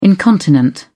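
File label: "incontinent", British English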